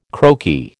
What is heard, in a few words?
"croaky", American